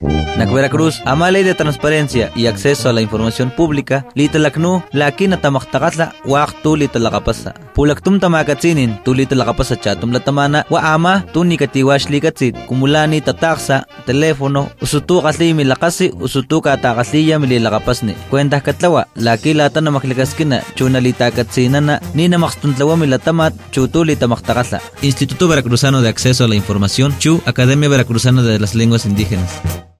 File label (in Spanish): totonaca spot